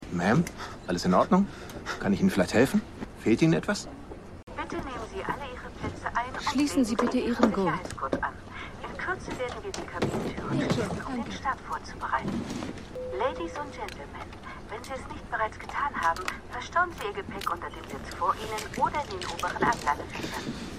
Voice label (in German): Flugbegleiter Flugbegleiterin